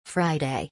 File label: "Friday" in english